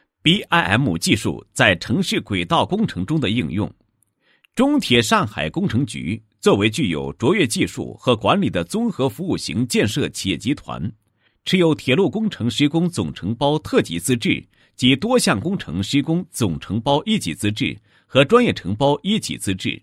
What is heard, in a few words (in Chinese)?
男27-工程解说,配音案例; BIM技术在城市轨道工程中的应用